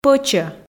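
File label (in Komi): "пӧчӧ", Komi, female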